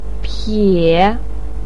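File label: "pie3", Mandarin Chinese, pinyin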